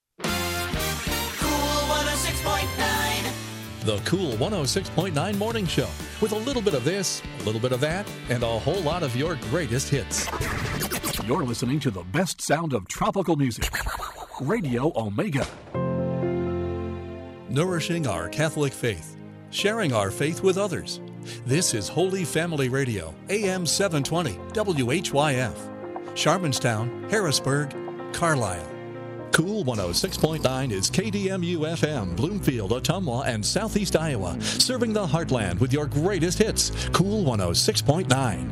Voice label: Imaging